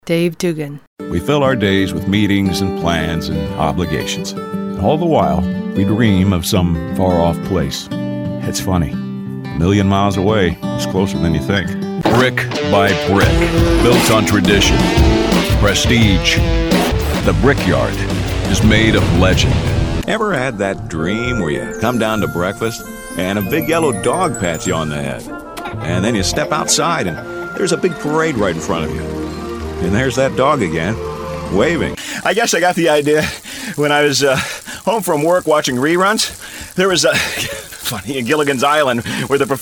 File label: M Commercial